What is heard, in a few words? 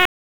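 Police Southwest District Tac, radio; Raleigh; Tac 1; p25; wake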